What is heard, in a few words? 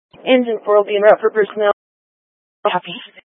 Fire Dispatch